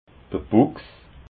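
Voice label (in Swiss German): "buchs", Alsatian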